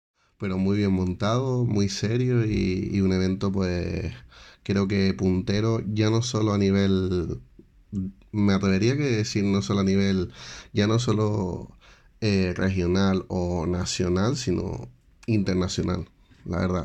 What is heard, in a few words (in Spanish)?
audio entrevista